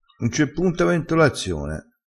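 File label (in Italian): "ventolazione", dialettale, crespinese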